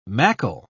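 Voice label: "mackle", British English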